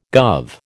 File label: "guv", American